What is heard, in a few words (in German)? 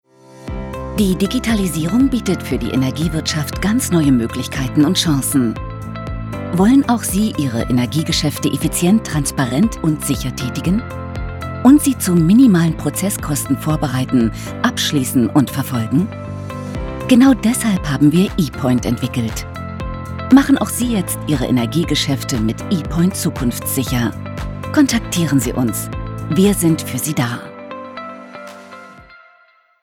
Erklaerfilm; E-Point